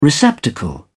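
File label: "receptacle", British English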